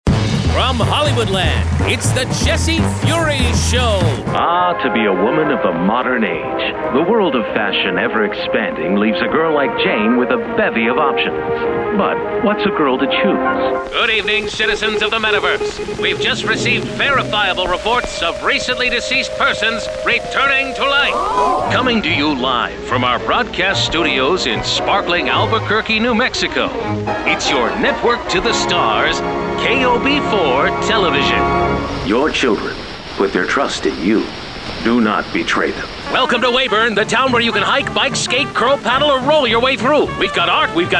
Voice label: Vintage Announcer, Vintage / Retro